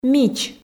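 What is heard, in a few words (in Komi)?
"мич", Komi, female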